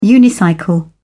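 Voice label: "unicycle", British English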